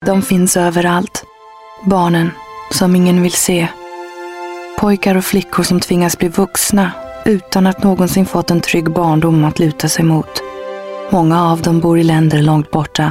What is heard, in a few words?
Swedish